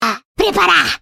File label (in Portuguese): voice portugues brasile; kennen